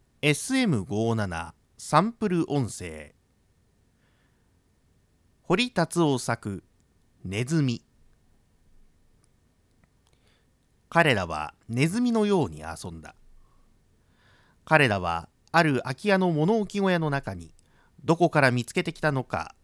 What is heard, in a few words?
sm57